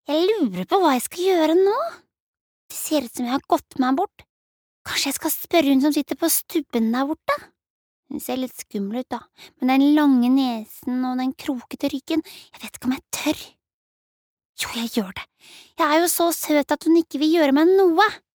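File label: Norwegian dub character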